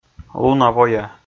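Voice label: "луна-воя", Komi, male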